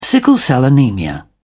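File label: "sickle-cell anaemia", British English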